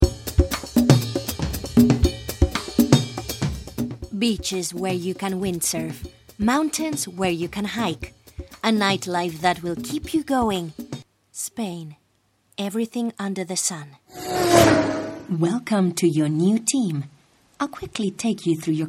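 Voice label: Spanish European Accented English; GoLocalise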